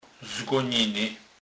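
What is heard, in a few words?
"zgoniny", Polish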